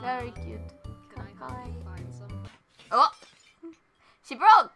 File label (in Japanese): bery cute.かわいい…お！死亡！